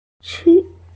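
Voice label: "si1ji1", female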